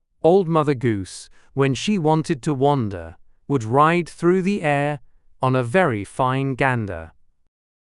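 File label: male British, classic rhymes; Old Mother Goose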